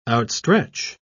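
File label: "outstretch", English, British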